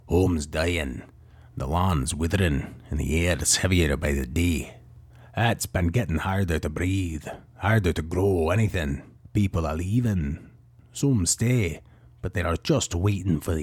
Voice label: Bold, determined protagonist with powerful heroic presence and emotional depth, Audiobook Hero; Angvald